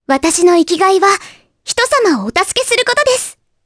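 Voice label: vox dia Japanese; Mirianne; kingsraid; jp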